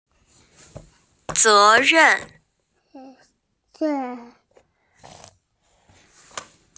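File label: "ze2ren4", Mandarin Chinese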